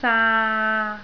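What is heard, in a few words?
"saa3", Cantonese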